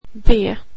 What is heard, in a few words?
"b", swedish alphabet